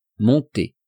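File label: "monter", French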